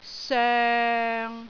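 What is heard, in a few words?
"soeng6", Cantonese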